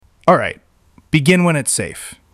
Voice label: Male Begin